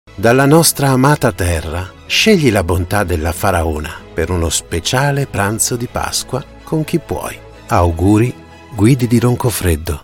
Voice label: IT, General

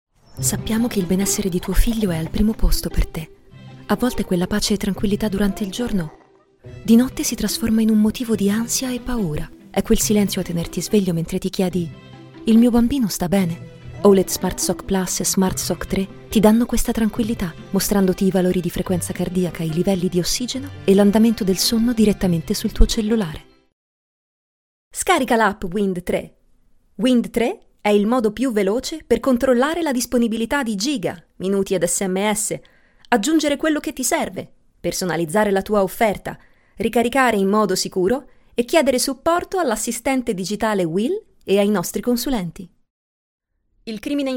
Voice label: IT, General

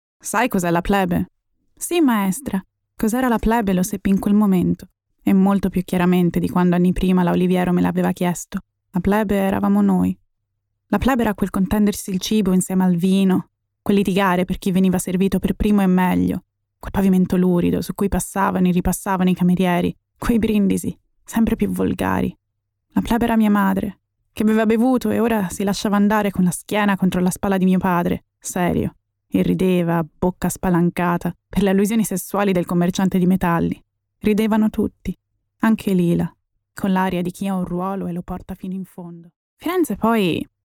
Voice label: IT, Narrative

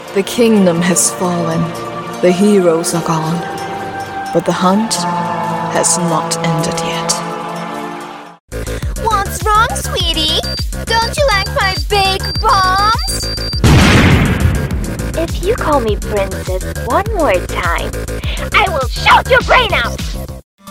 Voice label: PL, Acc EN

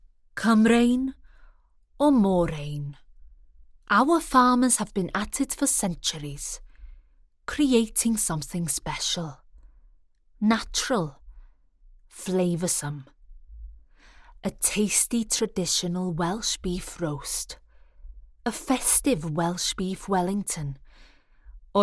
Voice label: CY, English accented; GoLocalise

